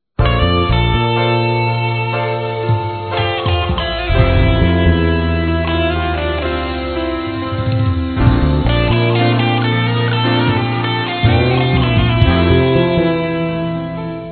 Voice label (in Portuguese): Natal Ano Novo Irmao Feminino; Telemensagens; Misto